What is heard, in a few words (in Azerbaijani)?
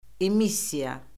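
"emissiya", Azerbaijani; Orfoqrafiya Lüğəti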